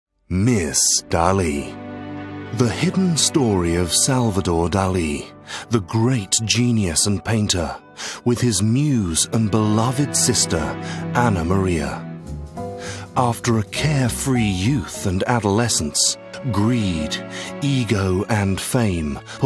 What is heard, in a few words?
documentary, English UK, male